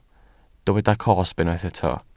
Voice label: "cosb", welsh

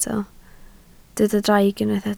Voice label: "draig", Welsh

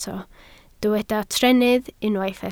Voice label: "trennydd", Welsh

